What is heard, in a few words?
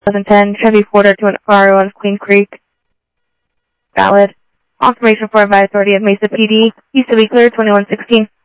South Scottsdale Sheriff, scanner audio